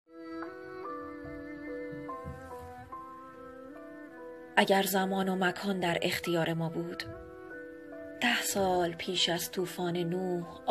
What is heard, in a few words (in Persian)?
دکلمه; اگر زمان و مکان